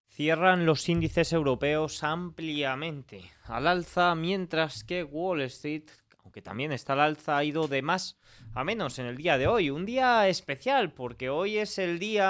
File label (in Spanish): radio